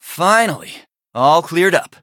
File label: ice pop wipeout, eng